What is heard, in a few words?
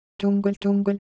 Korean, ideophones; Shape